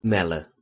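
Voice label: "melh1", Proto-Indo-European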